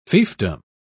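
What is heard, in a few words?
"fiefdom", English, British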